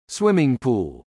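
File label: "Swimming pool", English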